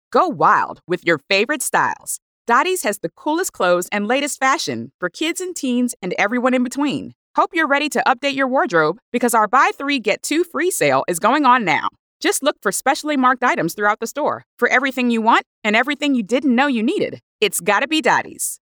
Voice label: Casual Conversational